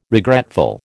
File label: "regretful", American